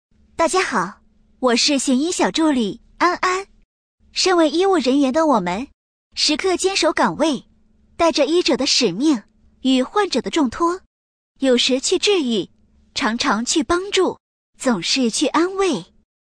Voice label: 女5号AI; 系统AI介绍

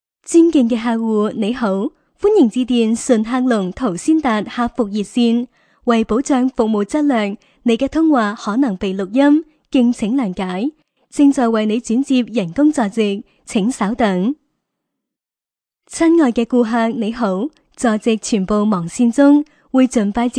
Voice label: 女 粤语 粤语彩铃

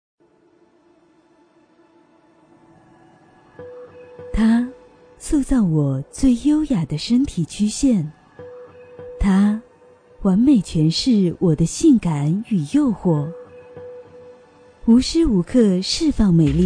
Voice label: 女 广告 纹胸

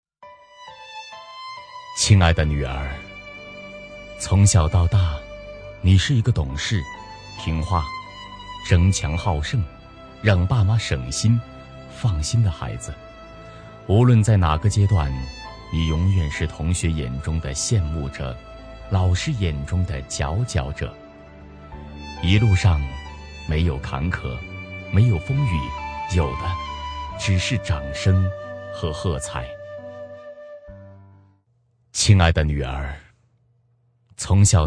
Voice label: 旁白-父亲

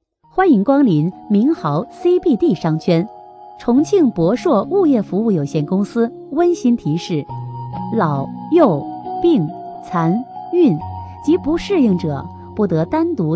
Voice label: 女 温馨提示 舒缓温柔

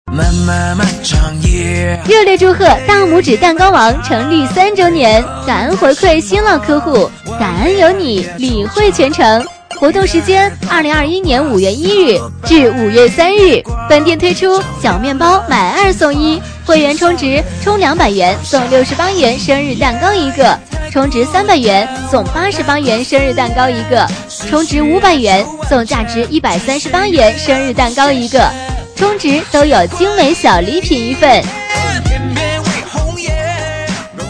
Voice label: 女 促销 热烈祝贺; 大拇指蛋

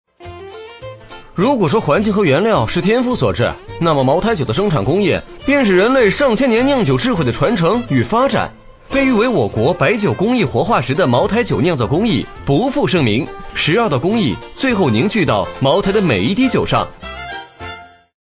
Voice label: 男 MG; 茅台

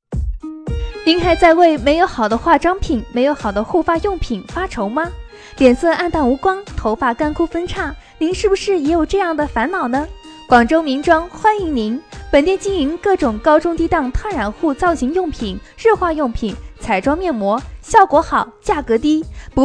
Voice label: 促销 化妆店 甜美亲切, 女

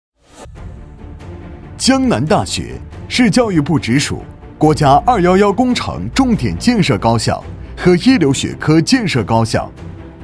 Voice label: 男 大气宣传; 江南大学

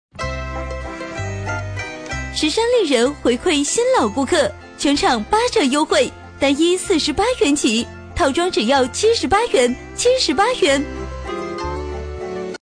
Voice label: 女 促销 服装

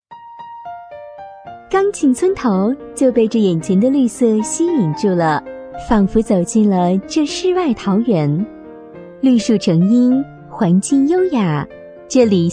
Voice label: 【专题】甜美温柔专题-世外桃源绿树成荫, 女

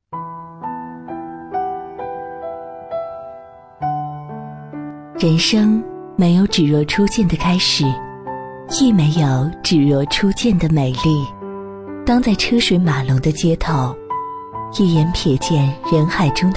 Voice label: 女 沙画旁白

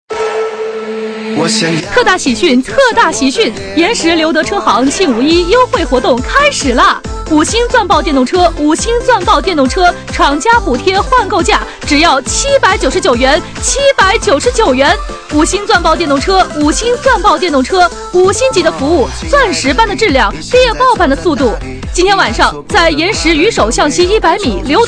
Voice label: 女 促销 车行

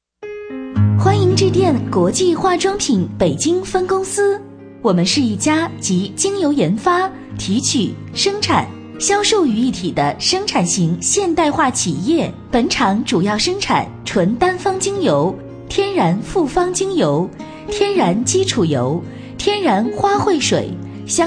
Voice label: 女4号彩铃; 国际化妆品

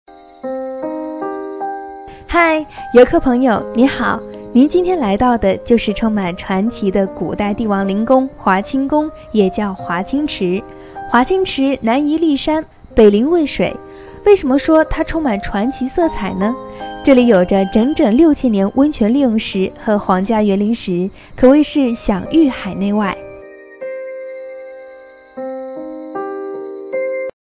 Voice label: 专题 景区 亲切自然, 女; 华清池景区; 华清池景区——亲切自然

